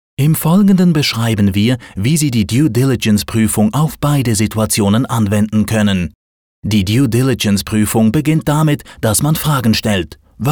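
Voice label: german swiss male narration; voice demos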